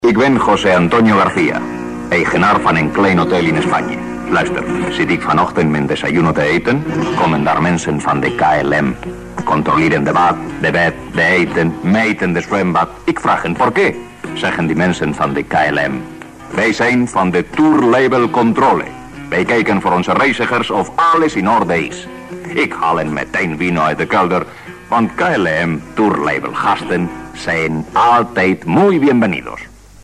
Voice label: commercial